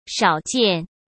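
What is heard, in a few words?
"少见", Mandarin Chinese; chinese-flashcards; hsk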